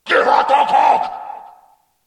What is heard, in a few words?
orc